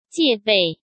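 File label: "戒备", Mandarin Chinese; hsk; chinese-flashcards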